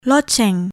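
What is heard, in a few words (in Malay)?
"loceng", Malay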